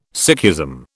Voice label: "Sikhism", British